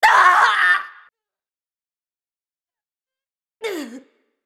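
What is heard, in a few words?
dying, English; Leona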